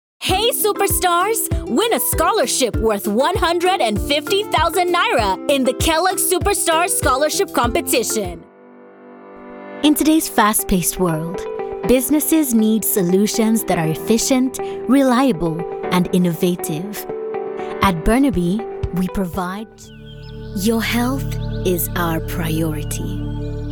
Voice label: Commercial Nigerian Accent